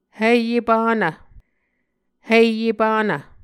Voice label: "hiye panv", Muscogee

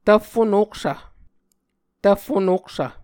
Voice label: "tvffo noksv", Muscogee; Mvskoke Words with Repeated Consonants; Onvkv Ohhvyayices

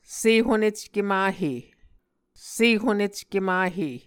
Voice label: "sehonecke mahe", Muscogee

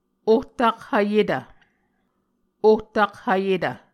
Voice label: "ohtakhvyetv", Muscogee

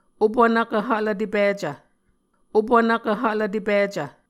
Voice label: "opunvkv hvlvtepicv", Muscogee

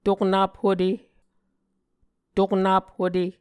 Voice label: "toknap-hute", muscogee

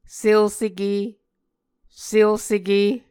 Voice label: "selseke", Muscogee

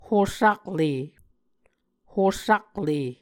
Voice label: "hosakle", muscogee

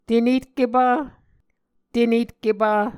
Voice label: "tenetkepa", muscogee

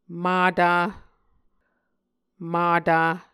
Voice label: "mata", Muscogee

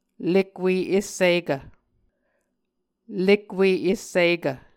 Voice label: "lekwe essikv", Muscogee

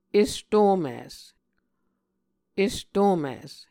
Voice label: "estomis", Muscogee

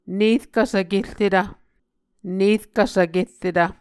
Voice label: "nerkv svkerretv", Muscogee

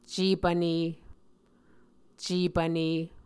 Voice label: "cepvne", muscogee

